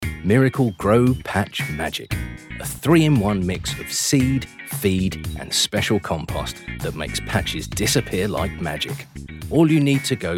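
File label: Commercial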